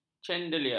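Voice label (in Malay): "candelier", Malay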